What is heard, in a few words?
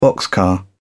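"boxcar", English, British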